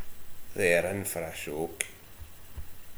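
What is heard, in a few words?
"they'rr", slang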